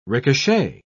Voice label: "ricochet", pronounce